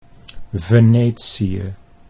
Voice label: "Venetie", Dutch

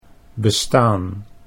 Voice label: "bestaan", Dutch

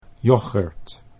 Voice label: "yoghurt", Dutch

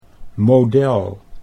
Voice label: "model", Dutch

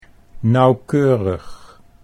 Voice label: "nauwkeurig", Dutch

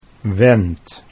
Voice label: "went", Dutch

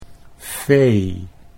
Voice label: "fee", Dutch

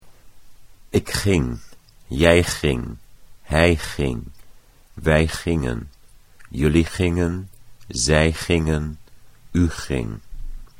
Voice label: "ik ging", Dutch

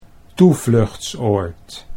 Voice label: "toevluchtsoord", Dutch

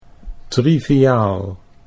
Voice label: "triviaal", Dutch

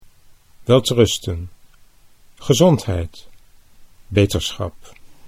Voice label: "welterusten gezondheid", Dutch

